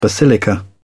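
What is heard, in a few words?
"basilica", British English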